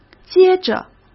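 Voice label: "接着", Mandarin Chinese; hsk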